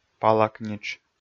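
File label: "палак нитш", Komi, male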